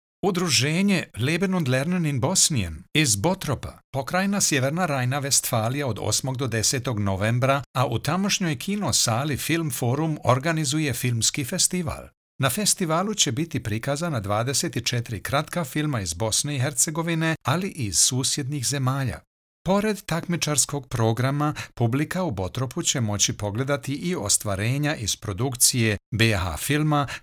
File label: Bosnian Reading